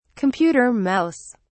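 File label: "computer mouse", English, British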